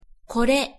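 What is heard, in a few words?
pronunciation "kore", Japanese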